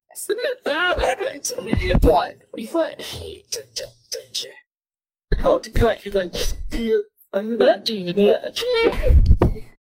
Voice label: black woman saying i like, female

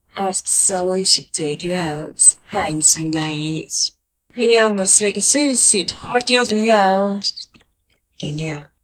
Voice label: an old grandpa saying i, male

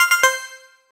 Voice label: a confident and positive, affirmation

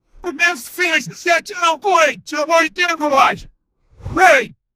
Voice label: angry salesman man says; male

